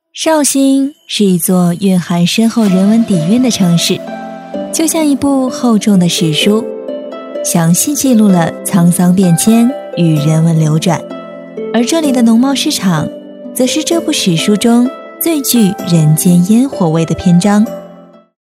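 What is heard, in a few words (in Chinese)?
女, 文旅, 青春活力; 绍兴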